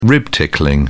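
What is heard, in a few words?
"rib tickling", British English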